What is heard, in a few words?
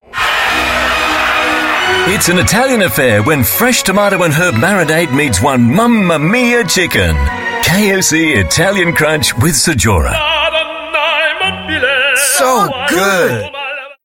AU Mid Atlantic English, English Australian Male; KFC Italian Crunch